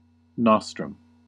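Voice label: "nostrum", US English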